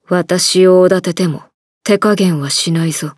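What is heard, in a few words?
start, Japanese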